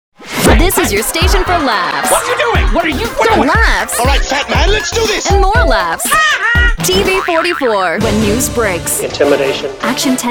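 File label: affiliate, voiceover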